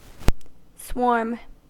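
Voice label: "swarm", American English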